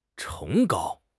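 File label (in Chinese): "崇高", Chinese; HSK6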